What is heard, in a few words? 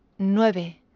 "nueve", Spanish